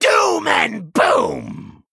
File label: Male Goblin Attack